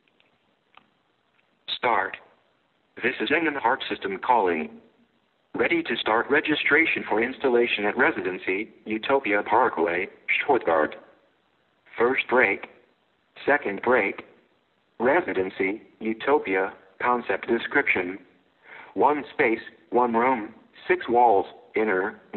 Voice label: Voicemail